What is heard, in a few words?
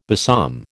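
"besom", American English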